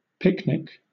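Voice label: "picnic", British English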